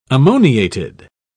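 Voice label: "ammoniated", English, British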